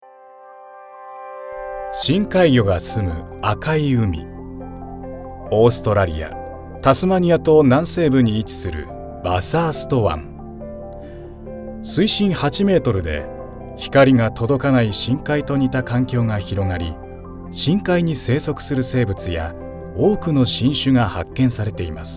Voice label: Narration